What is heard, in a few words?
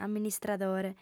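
"amministradore", Sardinian, female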